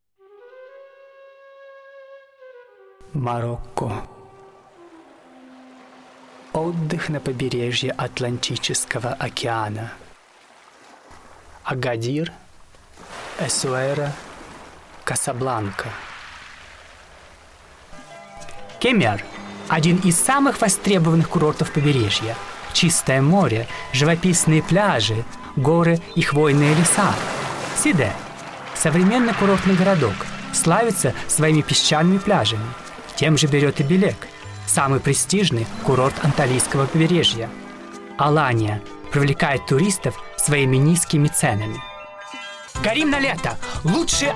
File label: male russian commercial